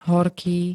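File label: "horký", Slovak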